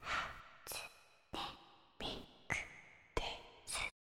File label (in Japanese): sasayaki